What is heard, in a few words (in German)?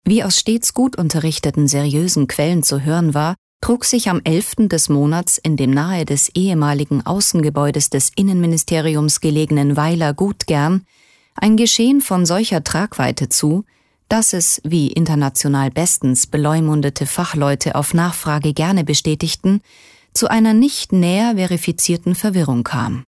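Kommentar Neutral; Trocken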